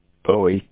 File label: owie voice